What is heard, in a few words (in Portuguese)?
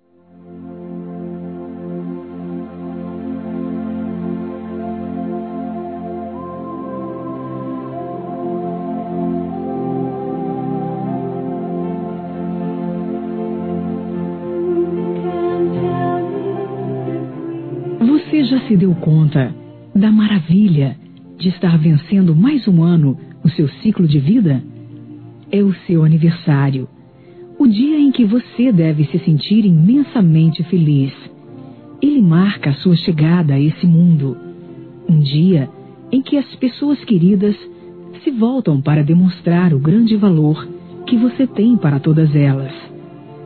Neutro Católico Feminino; Aniversários; Aniversários diversos